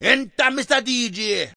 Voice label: enter mr dj, Rastafarian; VOCALS and SPOKEN WORD